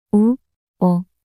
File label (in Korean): 우워 낱소리 여자